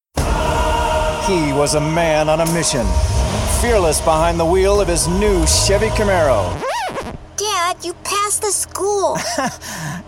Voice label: us commercial

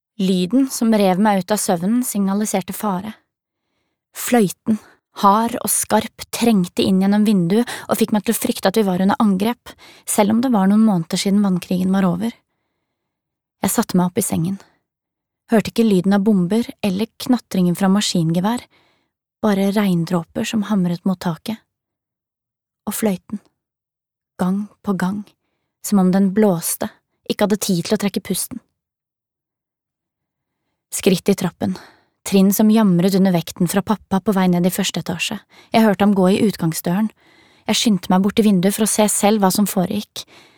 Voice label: no audiobook

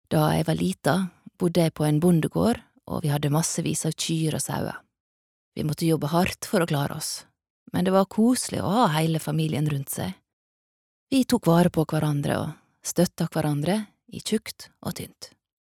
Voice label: no dub woman sunnmore, norsk kvinne

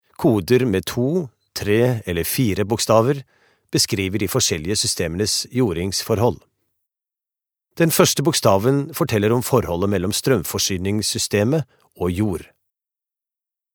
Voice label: no elearning

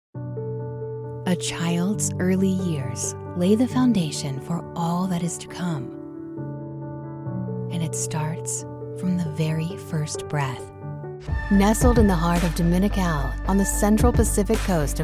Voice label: us narration

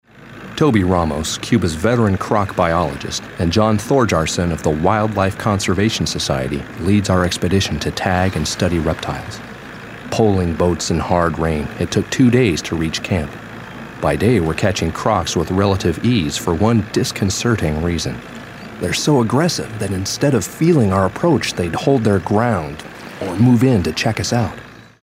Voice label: US narration

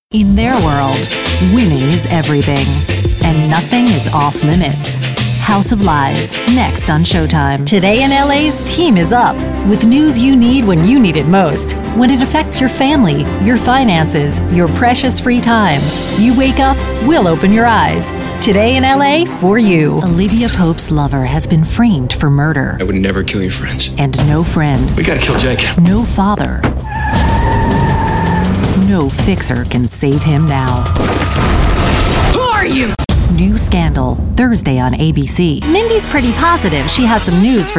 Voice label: US promo

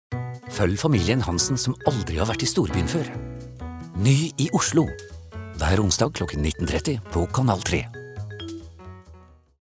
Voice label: no promo, norsk, mann

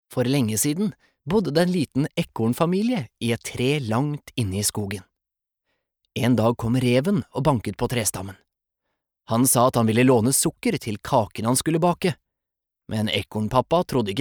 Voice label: no childrens book

